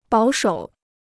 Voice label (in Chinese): "保守", Chinese